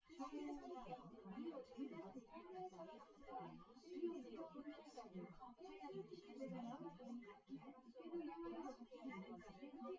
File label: female; SiSEC08; SiSEC underdetermined